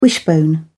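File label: "wishbone", English, British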